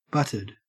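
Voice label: "butted", Australian English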